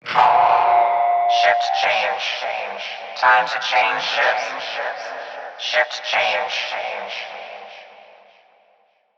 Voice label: PA System, en; Warframe; Solaris Venus